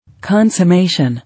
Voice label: "Consummation", English

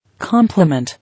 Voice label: "Compliment", English

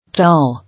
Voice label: "Tall", English

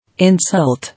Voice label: "Insult", English

